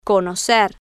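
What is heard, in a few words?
"conocer", Spanish, female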